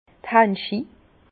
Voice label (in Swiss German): "hanschi", Bas Rhin; vin; gant